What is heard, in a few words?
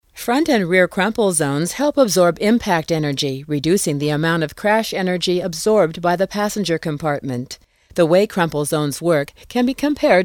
instructional, Voiceover